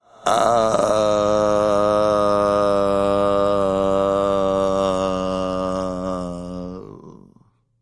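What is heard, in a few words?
"seriously", male; smite; voicepack; olorun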